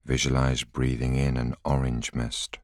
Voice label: voiceover